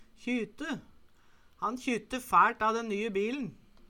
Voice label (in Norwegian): kjyte, Numedalsmål; Han kjytte fæLt a den nye bilen